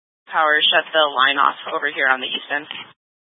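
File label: Incident Tac 2, Scanner audio recording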